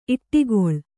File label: "ಈಟಿಗಾಳ", kannada